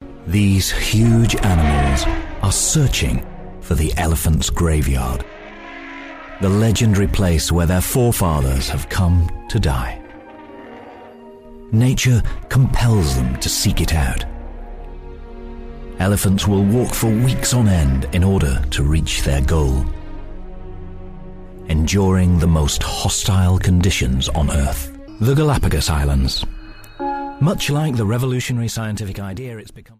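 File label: British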